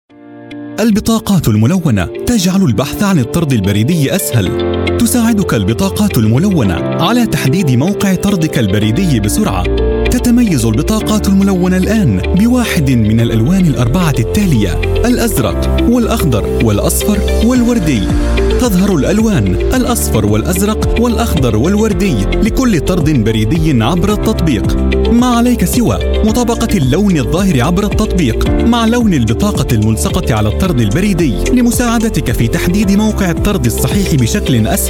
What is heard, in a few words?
arabic voice over male narrator